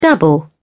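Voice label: "dabble", British English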